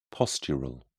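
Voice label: "postural", British English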